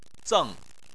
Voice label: "zeng4", Chinese

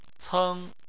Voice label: "ceng1", chinese

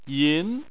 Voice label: "yin2", Chinese

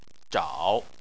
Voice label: "zhao3", Chinese

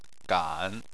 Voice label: "gan3", Chinese

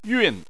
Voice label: "yun4", Chinese

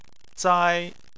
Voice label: "zai1", Chinese

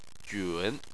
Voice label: "jun3", Chinese

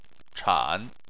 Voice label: "chan3", chinese

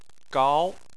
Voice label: "gao2", Chinese

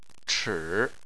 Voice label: "chi3", chinese

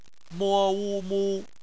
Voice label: "mu", Chinese; phonetics

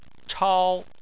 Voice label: "chao1", chinese

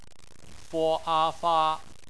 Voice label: "fa", Chinese; bpmf

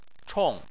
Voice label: "chong4", chinese

